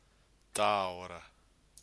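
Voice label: "taora", Māori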